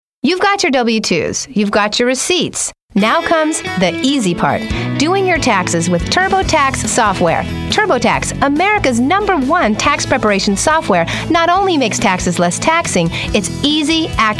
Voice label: Anncr Narration; VOICE OVER; demo